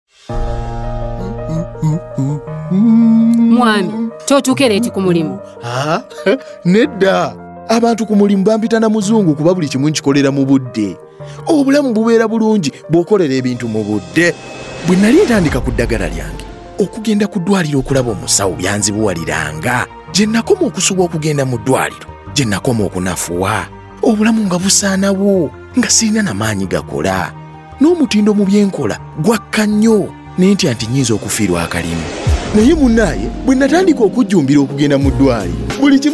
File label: Time Up HIV Spot ART Adherence Challenges Luganda; radio spot